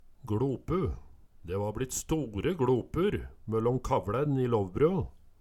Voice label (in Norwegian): "gLopu", Numedalsmål